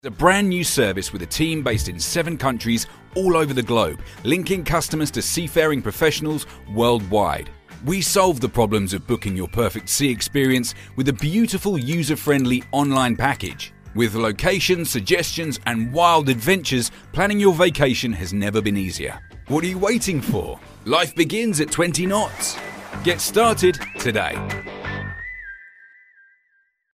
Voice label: Explainer Video Fresh